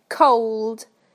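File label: "cold", British English; PictureDictionary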